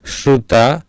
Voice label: "Sruta", Sanskrit